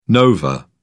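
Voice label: "nova", British English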